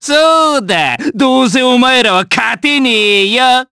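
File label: Vox Skill, Japanese; Bernheim; kingsraid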